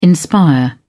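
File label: "inspire", British English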